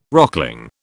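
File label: "rockling", British English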